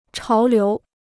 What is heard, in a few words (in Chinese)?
"潮流", Chinese